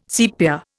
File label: "sepia", Indian